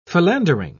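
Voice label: "philandering", British English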